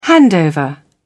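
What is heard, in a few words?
"handover", British English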